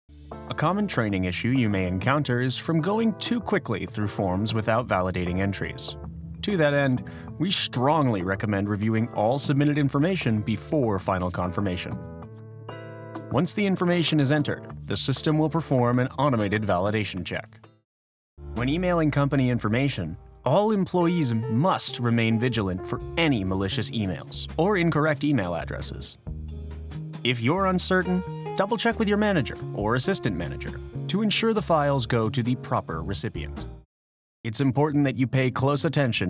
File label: E Learning